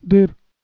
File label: "dir", Balochi